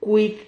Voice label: "cuid", Silabas

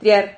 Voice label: "triar", Silabas

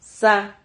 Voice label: "sah", Silabas

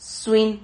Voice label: "suin", silabas

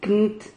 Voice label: "cnt", Silabas

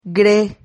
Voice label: "gre", Silabas

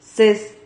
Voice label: "cez", Silabas

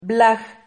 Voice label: "blaj", Silabas

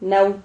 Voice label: "nau", Silabas

